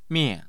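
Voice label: "mian4", Mandarin Chinese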